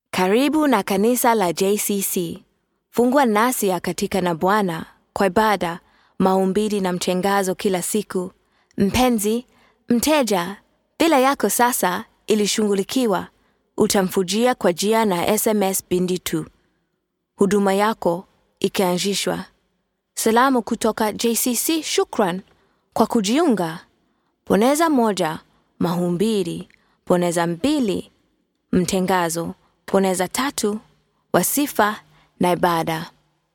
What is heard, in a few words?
Swahili; Female, Teens-20s